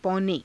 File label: "paune", Hindi